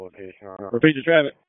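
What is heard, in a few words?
FIRE Operations, p25; FIRE OPS 1; wake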